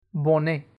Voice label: "bonnet", French